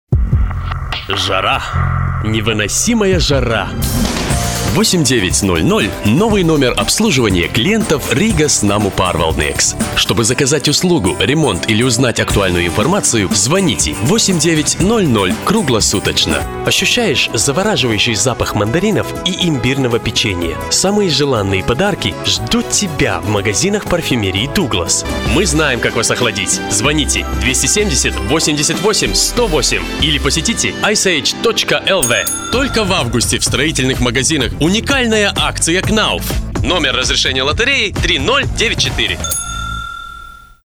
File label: male latvian russian commercial